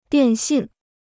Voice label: "电信", Chinese

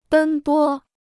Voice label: "奔波", Chinese

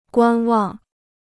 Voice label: "观望", Chinese; guān wàng; to wait and see

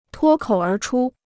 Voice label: "脱口而出", Chinese

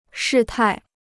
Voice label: "事态", Chinese

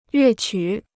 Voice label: "乐曲", Chinese

